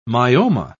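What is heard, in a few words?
"myoma", British English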